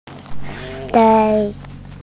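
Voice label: "sai1", Mandarin Chinese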